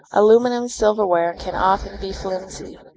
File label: speech est